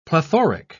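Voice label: "plethoric", British English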